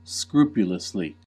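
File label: "scrupulously", US English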